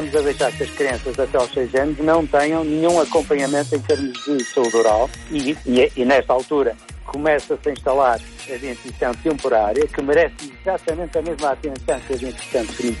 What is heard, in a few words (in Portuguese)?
Radio comercial cheque dentista